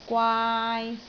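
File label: "gwaai3", Cantonese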